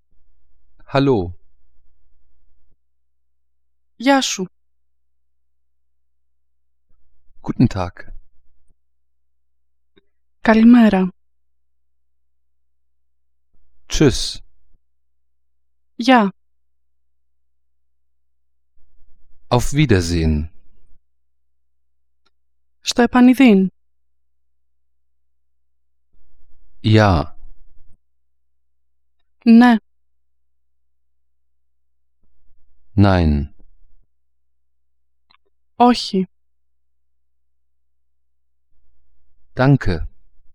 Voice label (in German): Griechisch